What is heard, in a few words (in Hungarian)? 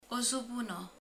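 "kabát", Hungarian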